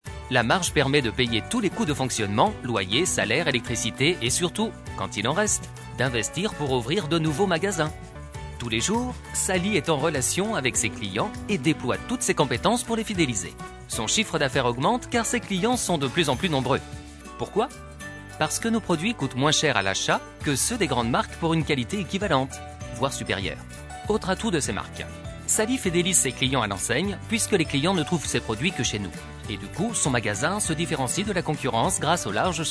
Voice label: french cartoon animation